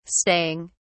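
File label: "staying", British English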